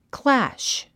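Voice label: "clash", English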